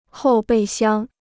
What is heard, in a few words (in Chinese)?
"后备箱", Chinese